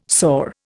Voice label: "sore", Indian

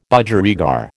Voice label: "Budgerigar", American

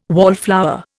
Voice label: "wallflower", Indian

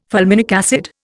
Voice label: "fulminic acid", Indian

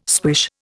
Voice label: "swish", Indian

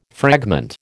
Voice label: "fragment", American

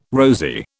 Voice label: "rosy", British

